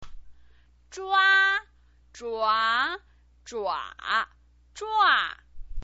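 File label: "zhua", Mandarin Chinese pinyin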